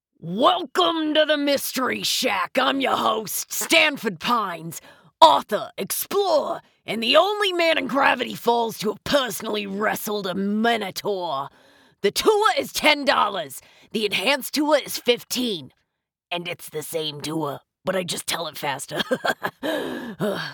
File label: Gravel, male, sarcastic, over the top